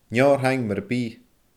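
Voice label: "neo ar thaing mura bi", Scottish Gaelic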